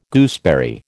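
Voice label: "gooseberry", American English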